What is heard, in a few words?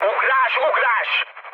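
Hungarian bailout mood high, speech; War Thunder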